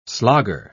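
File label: "slogger", British English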